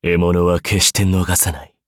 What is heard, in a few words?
level up, ja